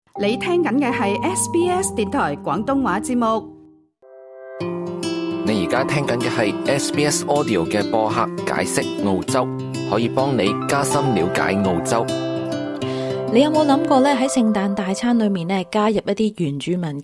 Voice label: cantonese; 【聖誕大餐】以原住民叢林美食 Bush Tucker 慶節的五種方法; ao zhou ding ju zhi nan; SBS Chinese

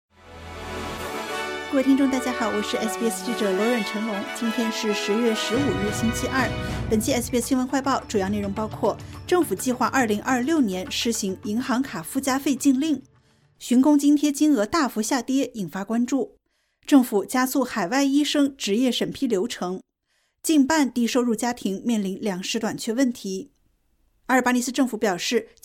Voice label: mandarin news flash; 政府计划2026年施行银行卡附加费禁令; SBS Chinese; SBS新闻快报

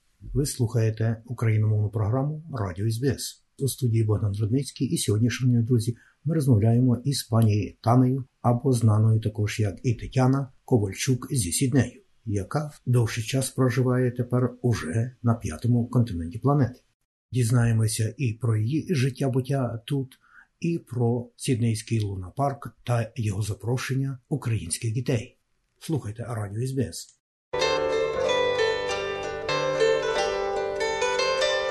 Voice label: ukrainian; SBS Ukrainian; Сіднейський Luna Park запрошує новоприбулих українських дітей

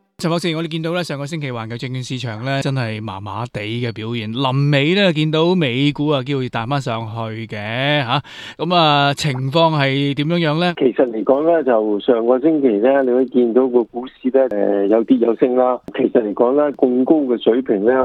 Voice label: cantonese; 疫情及退市辯論升溫 拖累市場表現; SBS Chinese; global finance

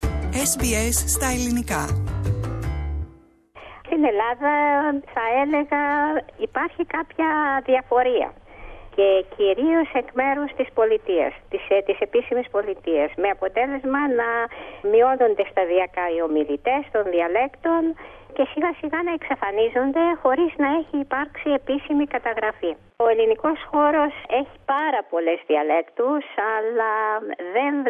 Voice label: greek; Αναπόσπαστο κομμάτι της ελληνικής πολιτιστικής κληρονομιάς, οι Ελληνικές διάλεκτοι; SBS Greek

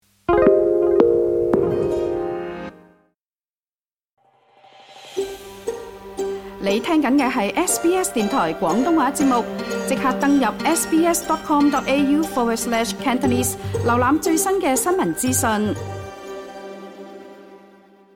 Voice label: cantonese; 【時事熱話】首置擔保計劃正式生效 專家：新政策或推高樓價; 澳洲儲備銀行昨日宣布將現金利率維持在 3.6%不變; SBS Chinese

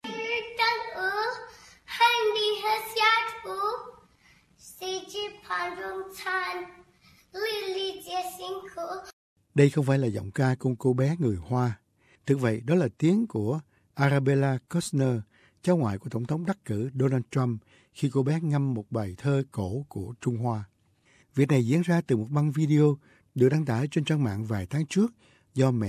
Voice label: vietnamese; viral video leaves chinese wondering about trump

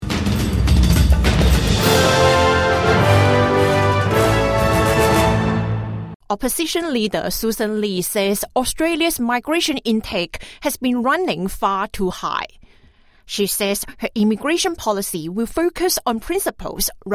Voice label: english; Coalition says Australia's migration intake 'far too high'; SBS News

